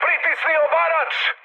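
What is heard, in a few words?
Serbian attack position mood high; War Thunder; speech srb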